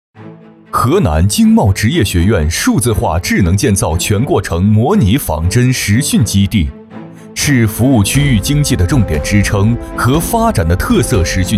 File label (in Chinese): 男 解说 数字化智能建造